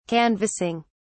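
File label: "canvassing", British English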